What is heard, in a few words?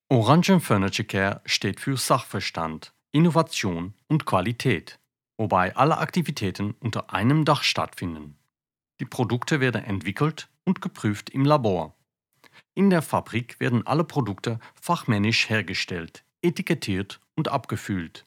Language German commercial